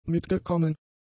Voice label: "mitgekommen", German; adjectives

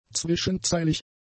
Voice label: "zwischenzeilig", German; adjectives

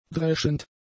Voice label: "dreschend", German; adjectives

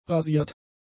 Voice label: "rasiert", German; adjectives

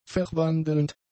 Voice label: "verwandelnd", German; adjectives

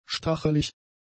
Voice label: "stachelig", German; adjectives

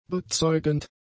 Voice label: "bezeugend", German; adjectives

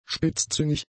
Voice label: "spitzzüngig", german; adjectives

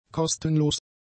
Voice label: "kostenlos", German; adjectives

